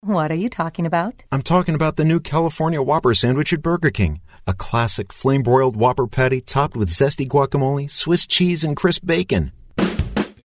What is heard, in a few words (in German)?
Eng US Fremdtake Dynamisch